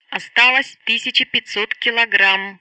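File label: Speech Sound Russian Common Messages; Betty; DCS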